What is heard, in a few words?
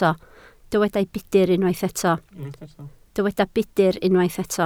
"budr", Welsh